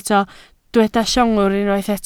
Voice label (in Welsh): "llongwr" Welsh